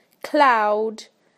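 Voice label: "cloud", British English; PictureDictionary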